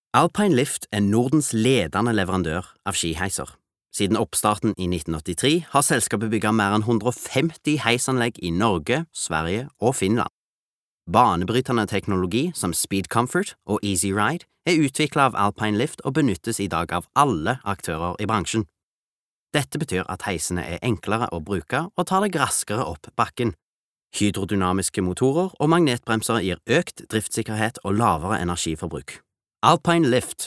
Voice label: no corporate stavanger